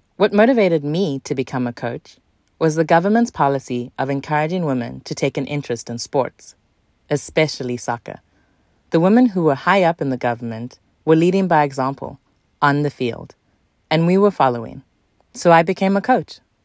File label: Voice Overs, Broadcast News; Ugandan Women's Soccer Coach